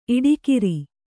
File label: "ಈಡುಕಾರ", Kannada